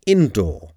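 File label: "indoor", English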